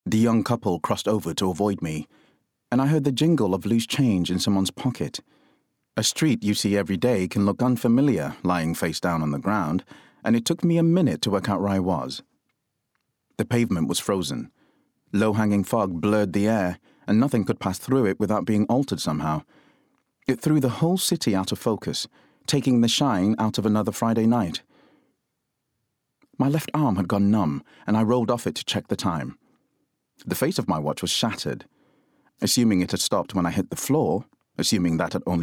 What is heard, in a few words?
Bookread; Sirens; JosephKnox